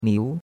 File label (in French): "miu2", chinois; Cours-de-Chinois